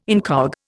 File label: "incog", Indian